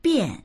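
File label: "bian", Mandarin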